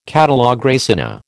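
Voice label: "catalogue raisonné", American English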